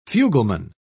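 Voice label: "fugleman", British English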